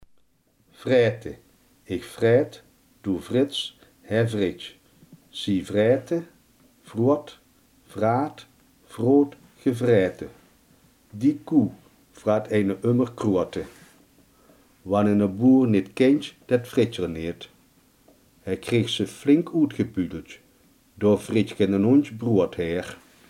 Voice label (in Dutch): "vreten", limburgse